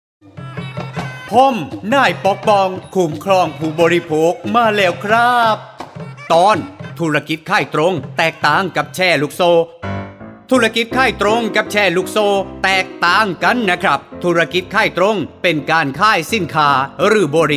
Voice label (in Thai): สปอตวิทยุ สคบ. ภาคใต้